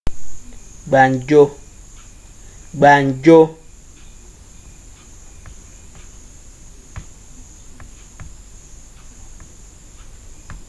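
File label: "gbanjo", Nigerian Pidgin, male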